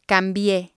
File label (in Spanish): "cambie", spanish